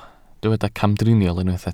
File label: "camdriniol", Welsh